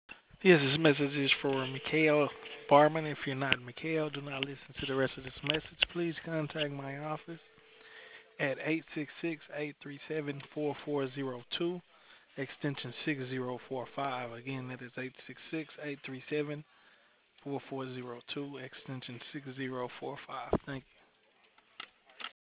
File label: voice message